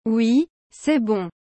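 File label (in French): Oui c'est bon, French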